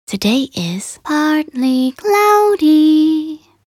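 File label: voc en weather partly cloudy, English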